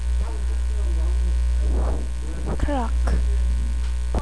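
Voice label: "clock", spoken word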